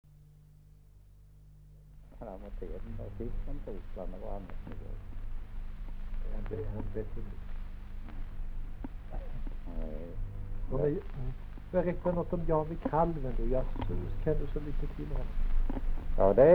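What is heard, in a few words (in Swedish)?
Sunnemo Värmland, dialekter; Folkminnen; Svenska sagor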